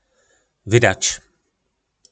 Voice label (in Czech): "Vydač", nářečí Po našimu; Vdát (se)